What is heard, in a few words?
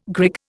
"grig", Indian